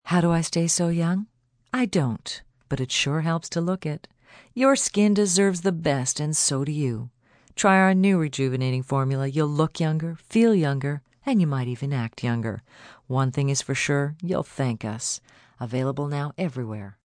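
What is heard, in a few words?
Stay Young F, Commercial, skin care products, female announcer, advertisement; female(2) announcer; (human voice speaking); Broadcast; Voice Box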